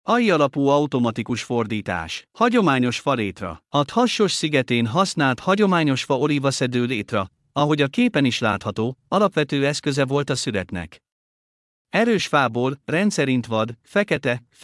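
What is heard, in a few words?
Hungarian, Audio guided tour; Σκάλα; A létra jól tükrözi a sziget mezőgazdasági hagyományait és a helyi famegmunkálás tudományát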